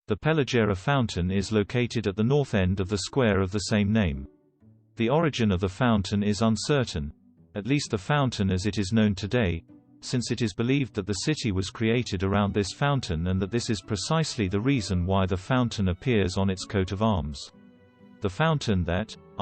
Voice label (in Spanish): INGLES; PLAZA FUENTE PELLEJERA